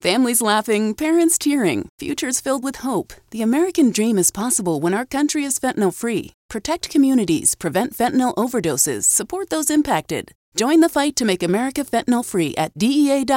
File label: Radio Dry